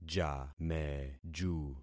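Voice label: "jameju", concatenated syllables; Affixing experiment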